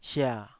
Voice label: "sia3", taiwanese Syllables